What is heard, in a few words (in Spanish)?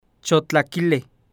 "buenas tardes", Nahuatl Guerrero; Prontuarios